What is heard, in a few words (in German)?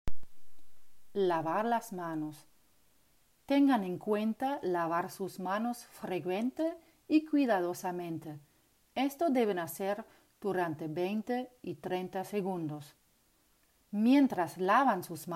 Spanisch